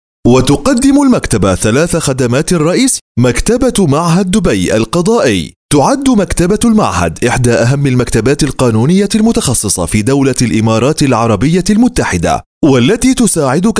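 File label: Arabic, seslendirme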